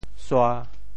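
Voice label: "sua1", Teochew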